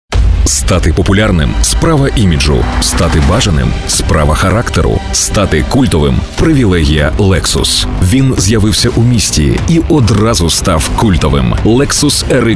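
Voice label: ukrainian voiceover locutor ucraniano, Ukrainian male voice over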